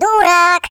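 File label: "Stupid", Russian; hedgewars; Default ru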